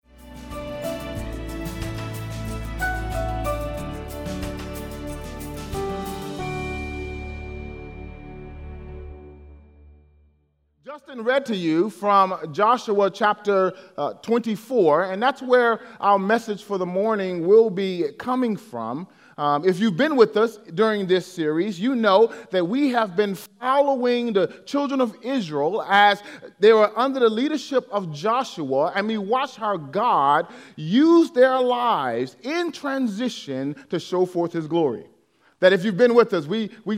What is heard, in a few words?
English Sermon